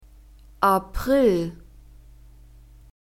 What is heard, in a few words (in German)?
"April", German; masculine